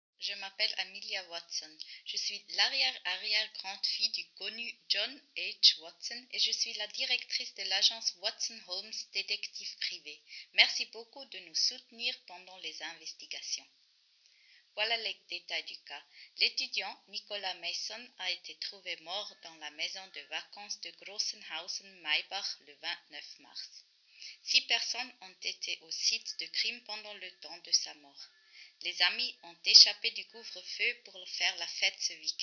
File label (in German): Ansage fr; couvre-feu